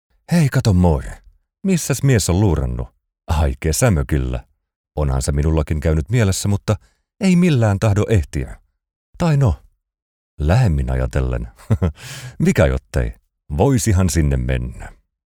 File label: Relax Casual